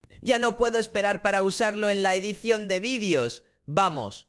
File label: Spanish; fish speech